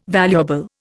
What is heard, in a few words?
"valuable", Indian